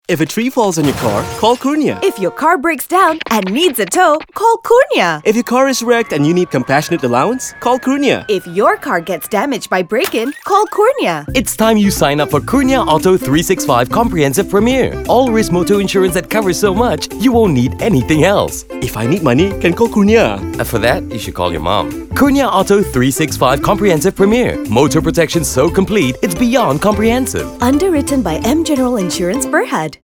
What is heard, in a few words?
Radio ENG; Kurnia Auto365